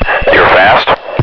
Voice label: fast; VERTIGO